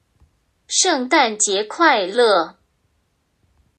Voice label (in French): chinois; calendrier avent multilingue